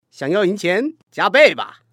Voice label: man double